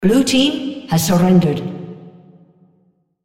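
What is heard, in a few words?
On Surrender blue female spectator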